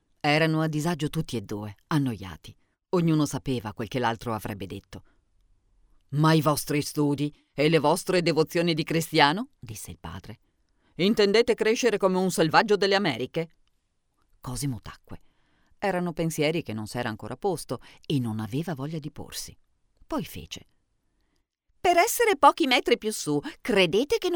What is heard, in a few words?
female italian voice over character